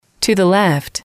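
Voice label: "to the left", English, female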